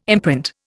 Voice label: "imprint", Indian